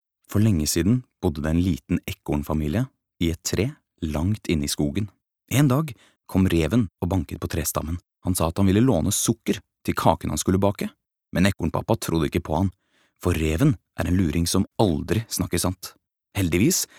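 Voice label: Norwegian childrens book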